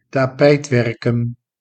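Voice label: "tapijtwerken", Dutch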